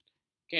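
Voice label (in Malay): "kem", Malay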